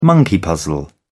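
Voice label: "monkey puzzle", English, British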